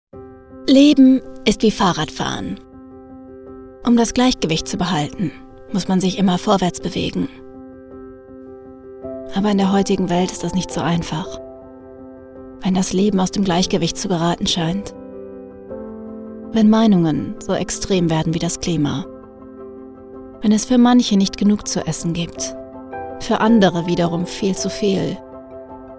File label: DE voiceover; BASF